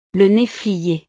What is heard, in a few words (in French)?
"la neflier", frances; frutas